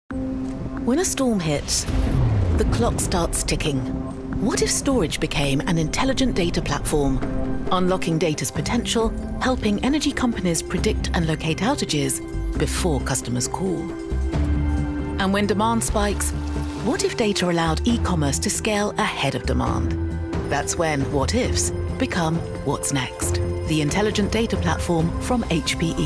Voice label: commercial; hpe